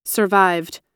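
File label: "survived", American English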